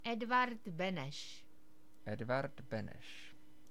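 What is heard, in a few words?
Edvard Benes, Czech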